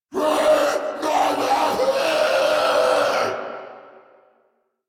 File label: Reload, Grineer, en; Warframe; Grineer Chem Nox